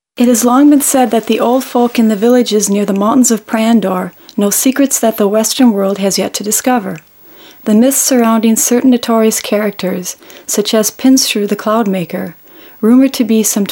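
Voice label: F EN documentary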